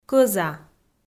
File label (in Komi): "кӧза" Komi